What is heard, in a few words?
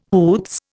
"hoots", Indian